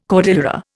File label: "cordillera", Indian